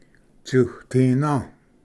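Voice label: "tsutina", Cherokee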